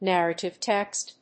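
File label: "narrative text", English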